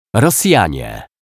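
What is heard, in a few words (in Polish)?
"Rosjanie", Polish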